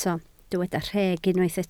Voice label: "rheg", Welsh